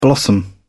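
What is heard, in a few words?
"blossom", British English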